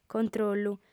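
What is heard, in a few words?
"controllu", female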